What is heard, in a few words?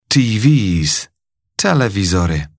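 "tvs", English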